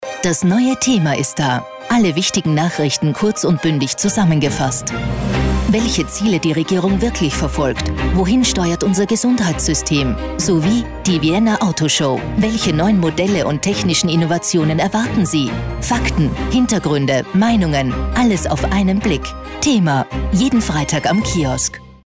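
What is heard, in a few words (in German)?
Austrian, Kommentar Neutral; Fremdtake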